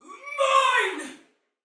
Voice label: Attack Normal, Female, Soldier; Dialog; Barks; Battle; Sinecure

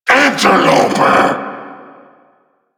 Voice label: Vocal Attack

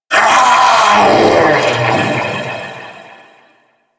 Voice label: Vocal Death

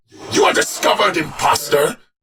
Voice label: Enemy Spot, Barks Battle; Warframe; Dax; Dax Knight

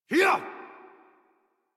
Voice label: Horse Sprint Adult; Warframe; Duviri

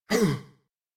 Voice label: Abil Jump, en; Warframe; New War; Teshin

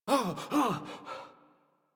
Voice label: Operator Voice; Operator Mission; Generic Land

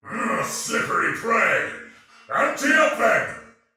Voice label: Sight lost shout, male soldier; Dialog; Barks; Battle; Sinecure

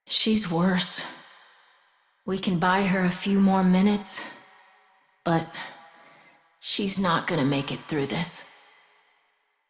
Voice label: Operator Voice; en; Warframe; Jade Shadows Quest

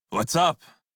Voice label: Crew Follow Male en; Dialog; Warframe; Railjack Crew; Red Veil

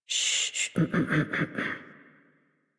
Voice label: Horse Calm Adult; Warframe; Duviri

